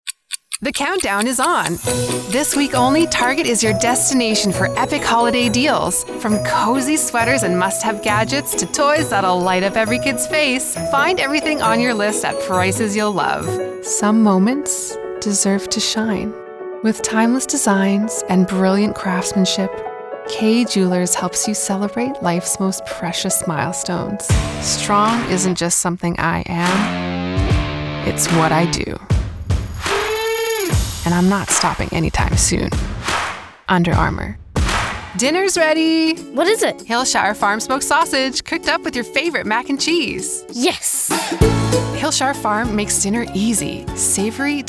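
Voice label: Commercial, Female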